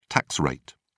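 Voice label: "tax rate", British English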